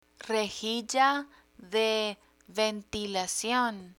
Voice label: "rejilla de ventilacion", Spanish; picture dictionary